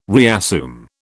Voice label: "reassume", British